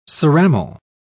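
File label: "ceramal", British English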